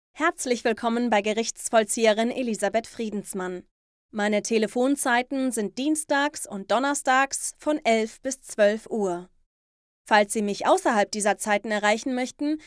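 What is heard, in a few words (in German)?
Telefonansage Gerichtsvollzieher, Sprecherin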